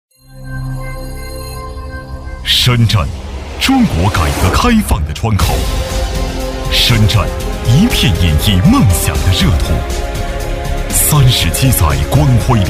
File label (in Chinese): 男 专题; 坪山教育